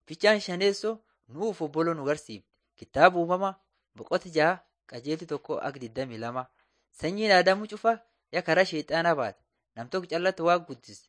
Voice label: borana sakuye

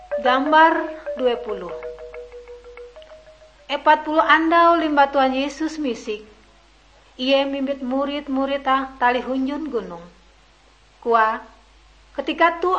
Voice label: kabar bahalap good news ngaju, drama

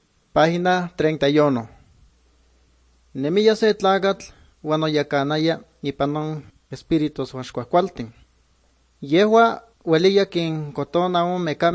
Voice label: good news, nahuatl guerrero chilapa

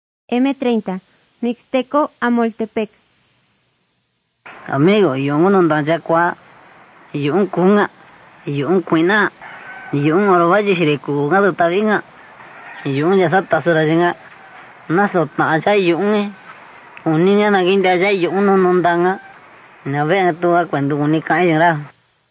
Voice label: mixtec diagnostic spanish mexico

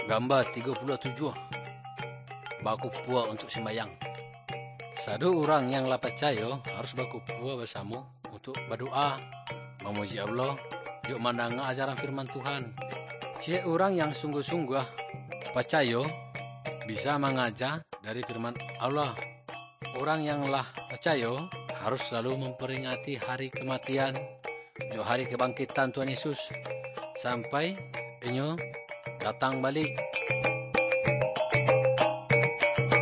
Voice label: good news minangkabau muko muko; kaba ilo

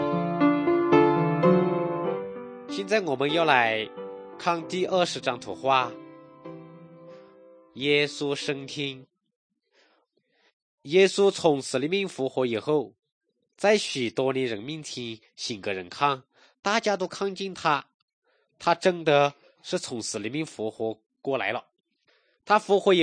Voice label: good news shan, drama

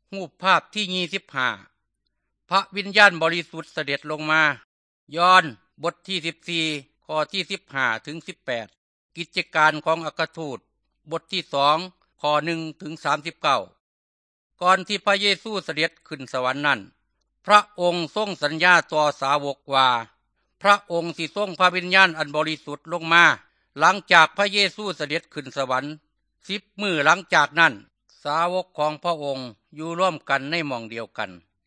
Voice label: good news thai northeastern

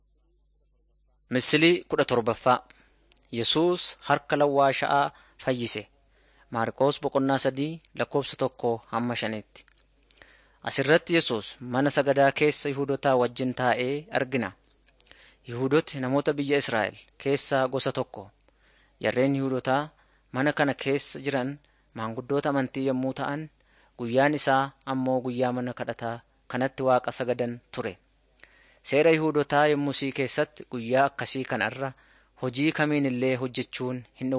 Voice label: oromo wellega; jesus teacher and healer short

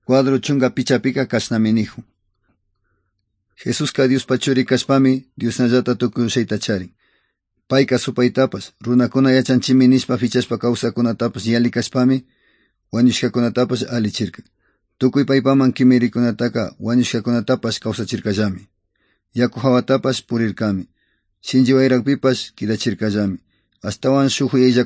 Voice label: good news quichua otovalo

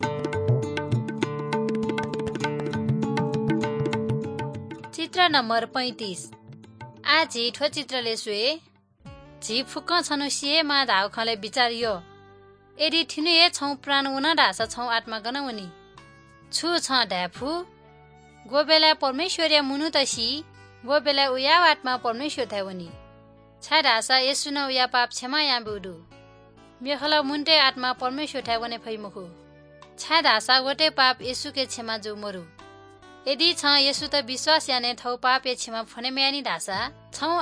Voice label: good news newari balami

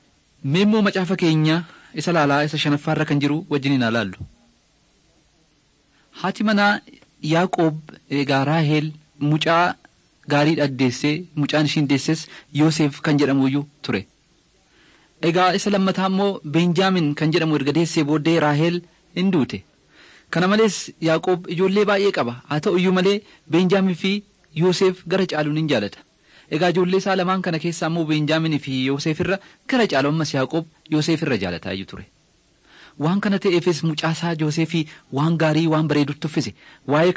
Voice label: oromo wellega; mighty men of god

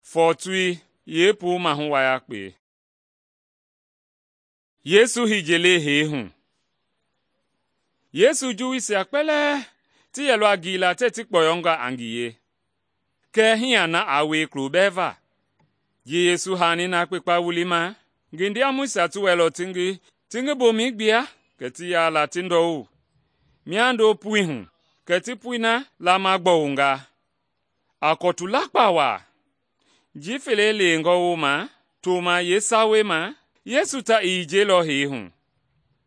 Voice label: mende bsl nt drama; mende kpa; good news; bible

